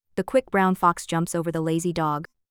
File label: english; supertonic